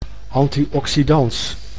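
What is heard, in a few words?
"anti-oxydans", Dutch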